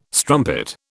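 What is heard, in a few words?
"strumpet", British English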